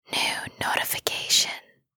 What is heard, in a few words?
asmr girl new notification; ringtone; asmr ringtones